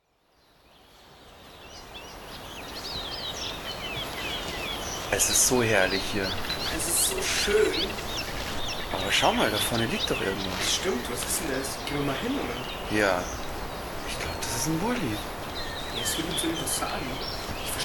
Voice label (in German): Hoerspiel